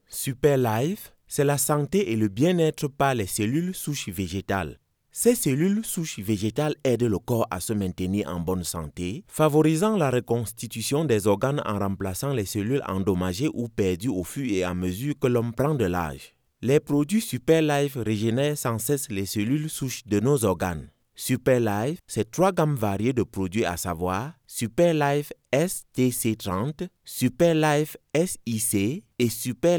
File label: French commercial and character